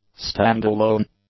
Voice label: "stand-alone", English; adjectives